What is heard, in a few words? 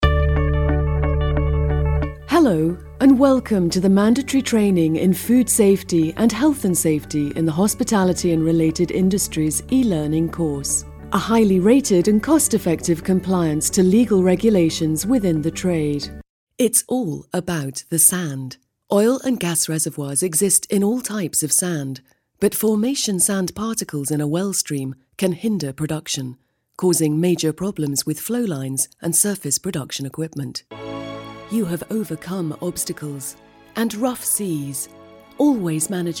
english female voiceover